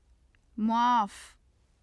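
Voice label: "muaf", Urdu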